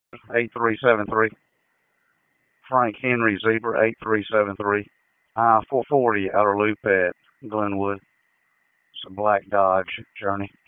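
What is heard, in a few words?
Dispatch 1, P25; WSO DISP 1; wake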